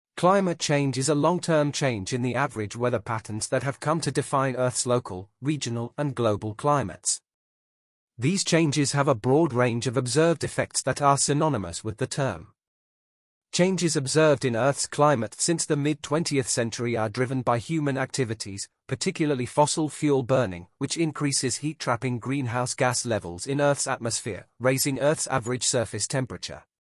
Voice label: UK